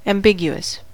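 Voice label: "ambiguous", US English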